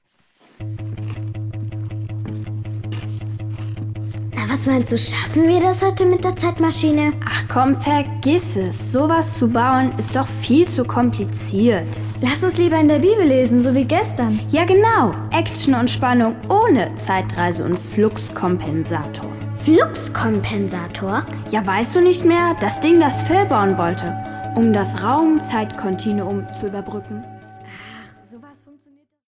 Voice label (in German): Hoerszene; Die Gute Nachricht